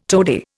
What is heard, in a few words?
"toady", Indian